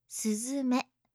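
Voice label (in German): "suzume", japanische Mädchennamen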